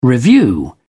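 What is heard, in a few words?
"revue", British English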